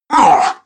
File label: heavy pain; zero